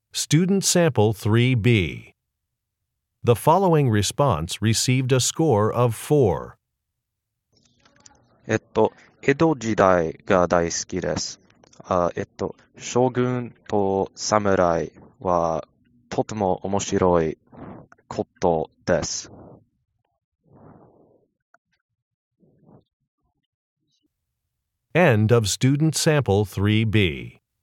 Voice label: Japanese conversation